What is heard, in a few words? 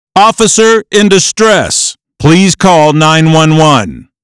Officer in Distress